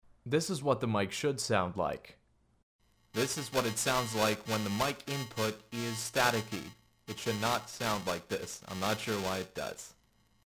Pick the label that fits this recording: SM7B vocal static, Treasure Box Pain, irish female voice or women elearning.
SM7B vocal static